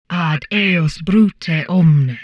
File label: humanoid portrait female; Stellaris; humanoids